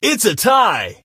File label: its a tie, Male; Kenney's Sound Pack; Voiceover Pack